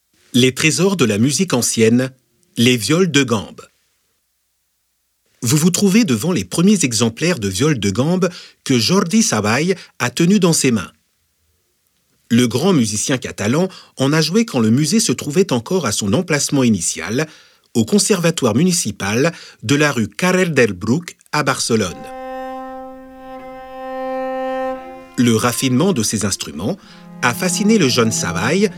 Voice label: audioguia french; delamusica